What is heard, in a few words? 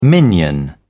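"minion", British English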